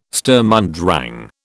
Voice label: "Sturm und Drang", British

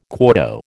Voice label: "quarto", American

